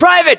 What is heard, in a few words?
rank private, us